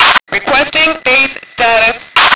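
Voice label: female status; lmctf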